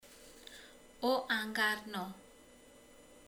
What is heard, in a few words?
"ceruza", romani